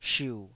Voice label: "shiu3", taiwanese